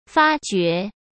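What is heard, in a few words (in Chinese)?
"发掘", Mandarin Chinese; chinese flashcards; hsk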